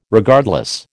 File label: "Regardless", American